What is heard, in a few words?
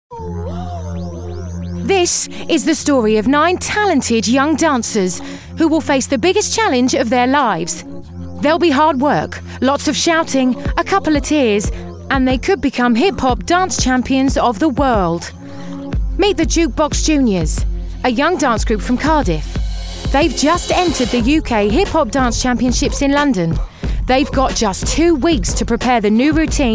Hip Hop Narration